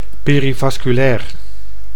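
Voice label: "perivasculair", Dutch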